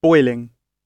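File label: "boiling", British English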